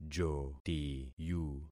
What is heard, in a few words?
"jotiyu", concat syllables; Affixing experiment